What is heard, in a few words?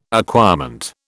"acquirement", British